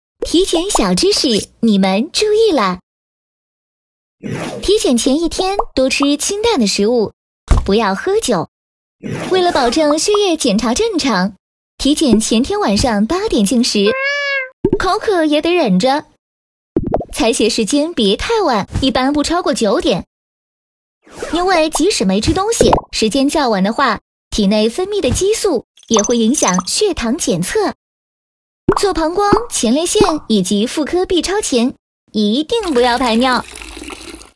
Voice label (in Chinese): 女 动画; 飞碟说MG动画; 安徽卫视动画系列; 体检小知识